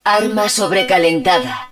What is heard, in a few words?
N HUD Weapon Overheating, Spanish